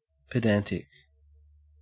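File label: "pedantic" English, Australian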